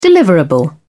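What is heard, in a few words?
"deliverable", British English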